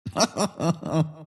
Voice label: monkey laugh; dota; monkey king

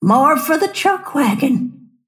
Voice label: lasthit pain; snapfire

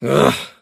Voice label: anger; davion; dota; dragon knight

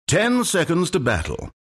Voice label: announcer count battle; dlc stanleyparable

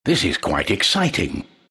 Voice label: announcer battle start; dlc trine

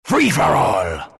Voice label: announcer all pick, pirate; dlc workshop; capn

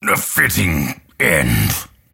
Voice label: lasthit pain; dota; grimstroke

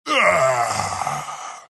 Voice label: wraith death; dota; skeleton king

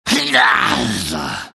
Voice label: anger; slardar

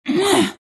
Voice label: anger; queenofpain

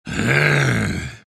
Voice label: anger; dota; omniknight

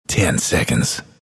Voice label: announcer count battle 10; dlc bastion

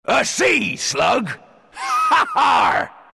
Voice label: announcer pick slardar, pirate; dlc workshop; capn

